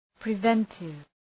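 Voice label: "preventive", English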